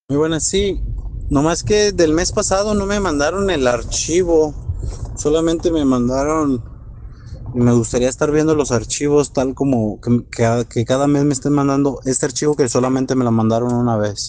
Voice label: whatsapp ptt